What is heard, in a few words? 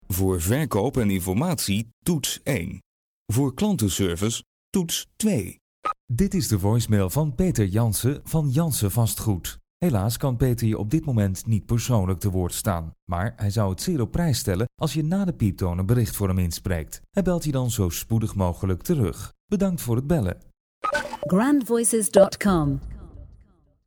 DUT M